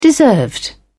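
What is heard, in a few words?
"deserved", British English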